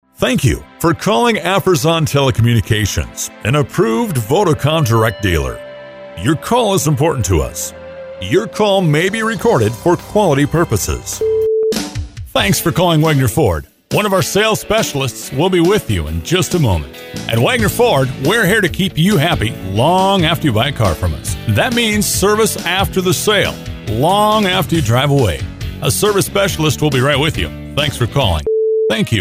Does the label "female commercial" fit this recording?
No